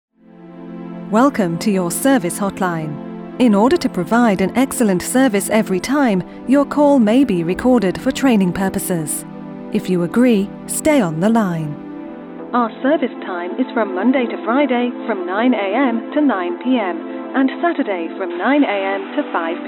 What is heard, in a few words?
telephone